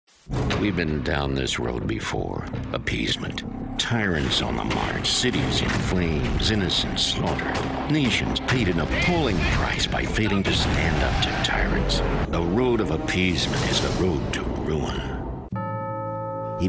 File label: Political Male; commercial voiceover